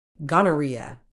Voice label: "gonorrhoea", medical English

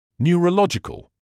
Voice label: "neurological", English

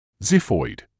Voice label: "xiphoid", medical English

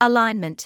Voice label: "alignment", English

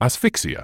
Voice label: "asphyxia", medical english uk

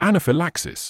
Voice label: "anaphylaxis", medical english uk

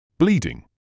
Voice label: "bleeding", medical english uk

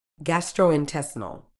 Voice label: "gastrointestinal", medical English

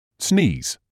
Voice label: "sneeze", English

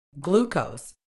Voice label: "glucose", medical English

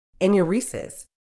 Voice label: "enuresis", uk

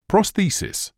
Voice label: "prosthesis", English

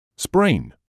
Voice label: "sprain", English

